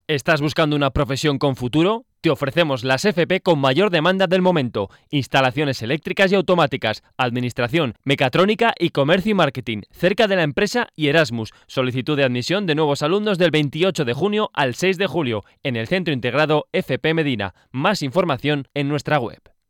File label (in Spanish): Publicidad Ciclos